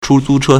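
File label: "chūzūchē", Chinese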